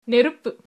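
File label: fire, Tamil, female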